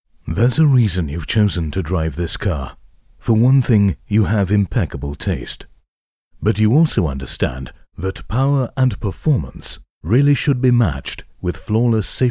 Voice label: british warm